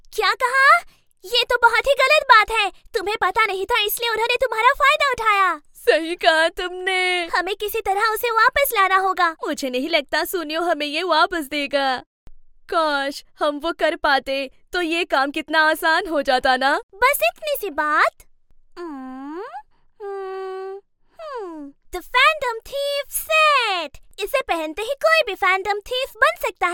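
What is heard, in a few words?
Hindi, Character; voice demos; GoLocalise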